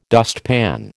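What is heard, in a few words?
"dustpan", American English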